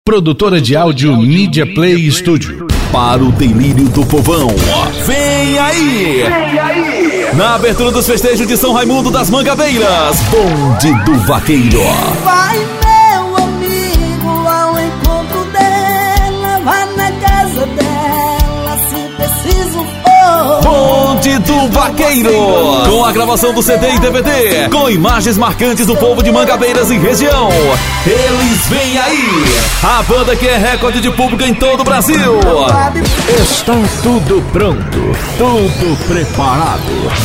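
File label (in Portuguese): portfólio locutor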